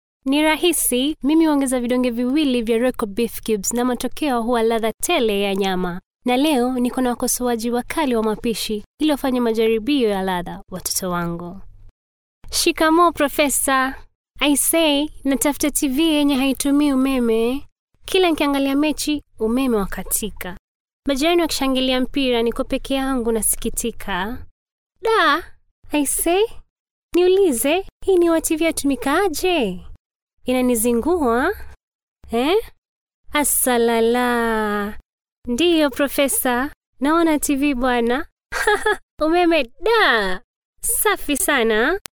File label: female swahili voice over narrative